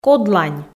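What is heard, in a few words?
"кодлань", Komi, female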